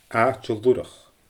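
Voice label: "aaite lurach", Scottish Gaelic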